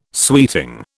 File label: "sweeting", British